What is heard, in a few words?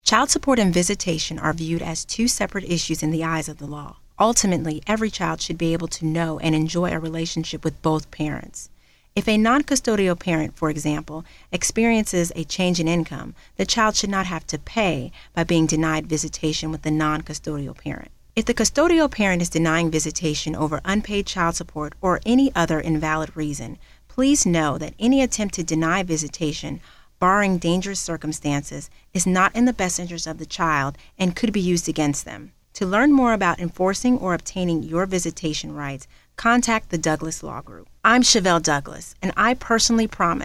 Commercial; Custody and visitation